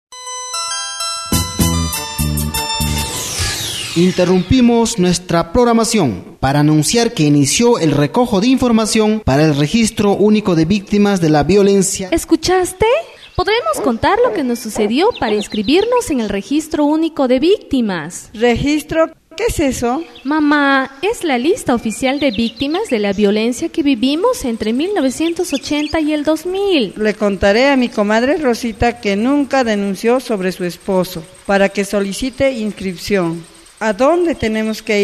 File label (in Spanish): spot; RUV AMBO